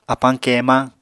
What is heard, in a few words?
"apañ keemañ", Apatani